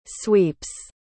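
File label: "sweeps", English word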